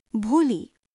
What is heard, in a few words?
Nepali